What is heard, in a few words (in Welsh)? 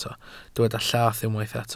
"llath", Welsh